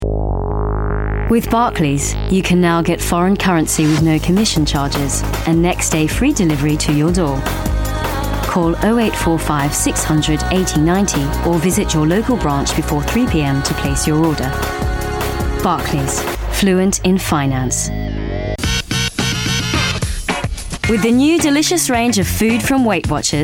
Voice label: UK

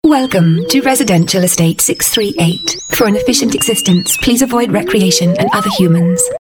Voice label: Robot

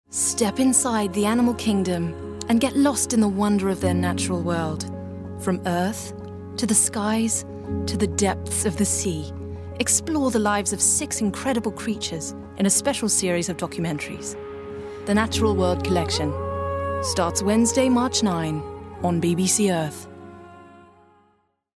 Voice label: UK Accent

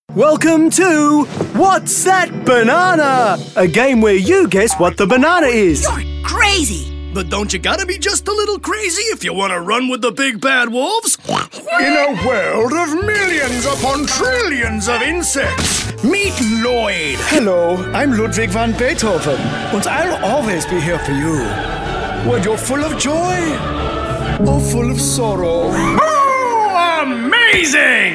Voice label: Animation

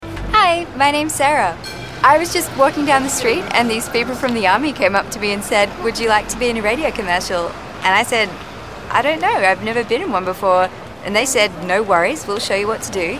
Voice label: Radio Commercial; EM Voices

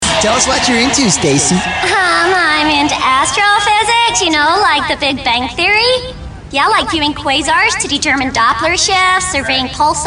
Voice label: US voice

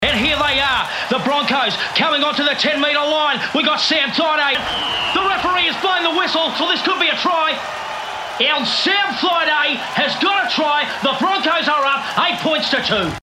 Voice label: commentator

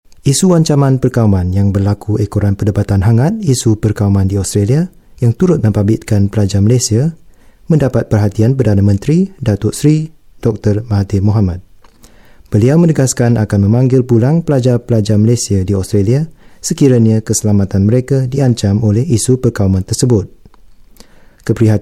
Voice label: malaysian; EM Voices

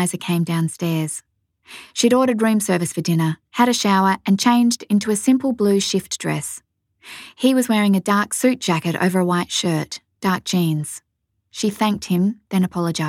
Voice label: Audiobook

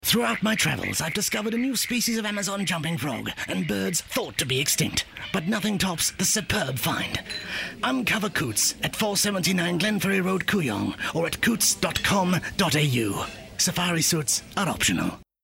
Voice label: French Restaurant; David Attenborough; Koots

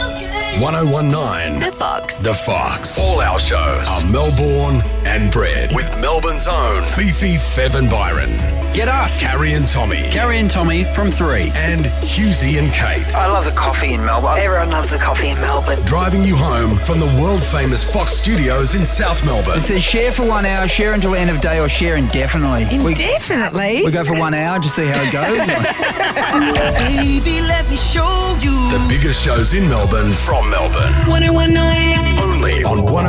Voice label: Promos

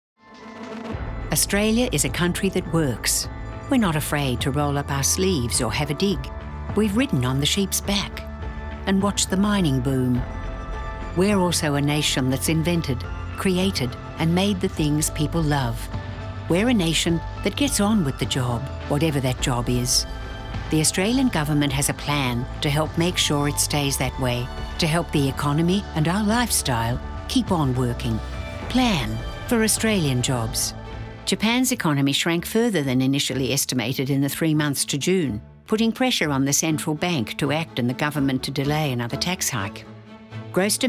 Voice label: corporate voice over

